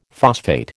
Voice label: "phosphate", American

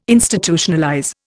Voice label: "Institutionalize", Indian

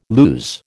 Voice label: "lose", American

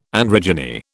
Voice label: "androgyny", British

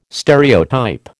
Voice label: "stereotype", American

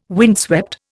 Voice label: "windswept", Indian

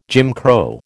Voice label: "Jim Crow", American

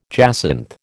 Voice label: "jacinth", American

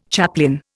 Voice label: "chaplain", Indian

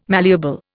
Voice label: "malleable", Indian

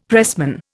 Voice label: "pressman", Indian; Dictionary

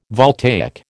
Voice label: "voltaic", American

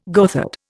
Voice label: "goatherd", Indian

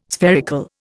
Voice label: "spherical", Indian

